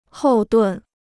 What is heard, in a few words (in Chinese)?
"后盾", Chinese